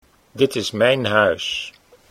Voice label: dit is mijn huis, Dutch

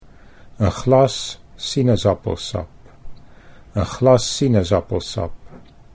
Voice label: "een glas sinaasappelsap", Dutch

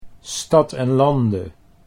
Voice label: "Stad en Lande", Dutch

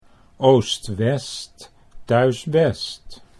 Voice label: oost west thuis best, Dutch

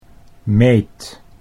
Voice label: "meet", Dutch

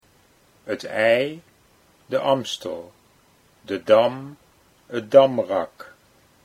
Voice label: "Het IJ De Amstel", Dutch

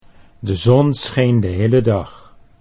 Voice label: de zon scheen, Dutch

